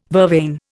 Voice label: "vervain", Indian

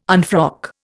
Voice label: "unfrock", Indian

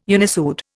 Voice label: "unissued", Indian

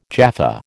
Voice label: "Jaffa", American

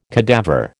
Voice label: "cadaver", American

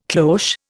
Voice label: "cloche", Indian

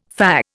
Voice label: "fah", Indian

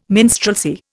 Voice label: "minstrelsy", Indian

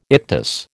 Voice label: "ictus", American

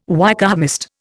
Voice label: "Wykehamist", Indian

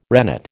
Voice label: "rennet", American

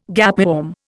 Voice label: "gapeworm", Indian

